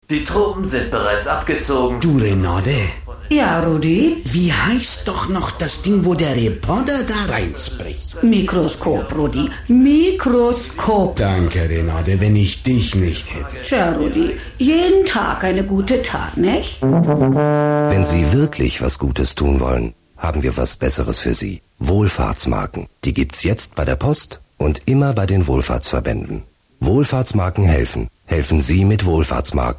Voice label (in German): spot; wohlfahrt